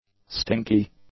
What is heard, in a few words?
"stinky", English; adjectives